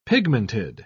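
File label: "pigmented", British English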